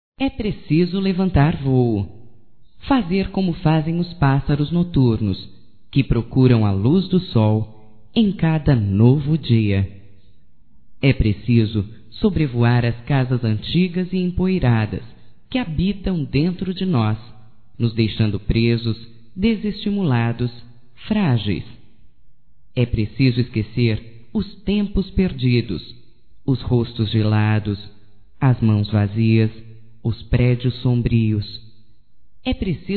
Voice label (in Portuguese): reflexão, fem; Amizades; Telemensagens 2017